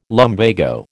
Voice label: "lumbago", American

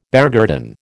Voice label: "beargarden", American

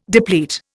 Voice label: "deplete", Indian

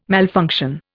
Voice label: "malfunction", Indian

